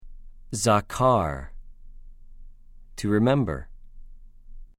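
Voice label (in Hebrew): "זָכַר", Hebrew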